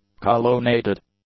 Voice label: "colonnaded", English; adjectives